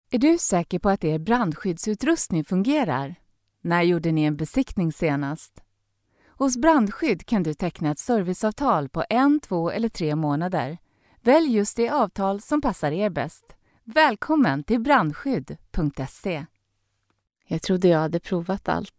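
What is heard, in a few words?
Swedish Sweden female sales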